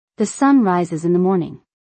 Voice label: The sun rises in the morning-English; Time and Routine

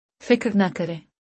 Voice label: "Don't worry", Urdu; Basic Greetings and Courtesy

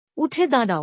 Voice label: Stand up, bangla; Simple Commands

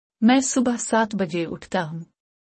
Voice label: I wake up at 7 AM urdu; Time and Routine

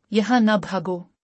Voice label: Don’t run here urdu; Time and Routine

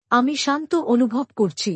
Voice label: I feel peaceful, Bangla; Expressing Feelings

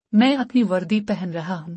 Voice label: "I am wearing my uniform.", Urdu; Dress and Appearance

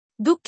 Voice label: "sorry", bangla; Basic Greetings and Courtesy

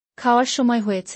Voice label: It’s time to eat Bangla; Time and Routine

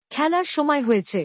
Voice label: It’s time to play Bangla; Time and Routine

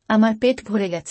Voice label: I am full. - Bangla; Food and Eating

